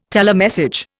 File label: "telemessage", Indian